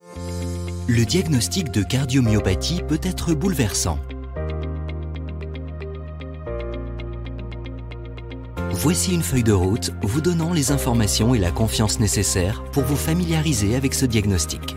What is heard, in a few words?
french medical narration, french voice actors